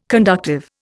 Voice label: "conductive", Indian